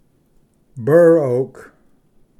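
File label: "burr oak", English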